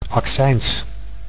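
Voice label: "accijns", Dutch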